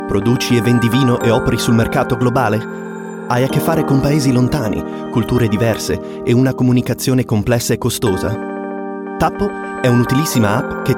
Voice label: Italian